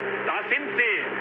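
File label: German enemy detected mood med, speech; War Thunder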